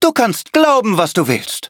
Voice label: loading, de; Cookie Run

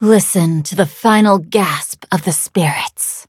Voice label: levelup, en; cookie run

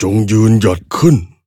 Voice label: defeat, th; Cookie Run

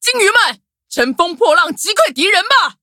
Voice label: loading, zh-hant; cookierunwiki

